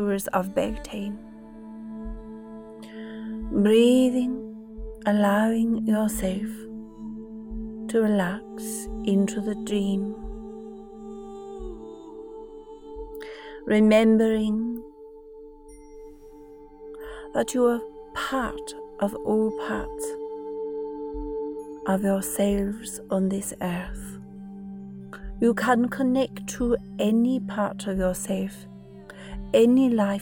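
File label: Adolescent Meditation; Druid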